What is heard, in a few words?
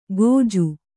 "ಕೋಜು", Kannada